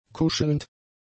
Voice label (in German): "kuschelnd", German; adjectives